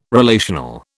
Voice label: "relational", British

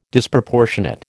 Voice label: "Disproportionate", American

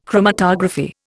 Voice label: "chromatography", Indian

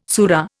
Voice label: "sura", Indian

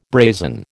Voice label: "brazen", American

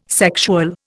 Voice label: "sexual", Indian

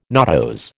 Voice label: "nodose", American

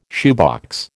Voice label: "shoebox", American

